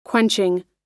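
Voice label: "quenching", American English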